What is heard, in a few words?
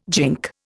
"gink", Indian